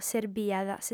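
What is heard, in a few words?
"serbiat", female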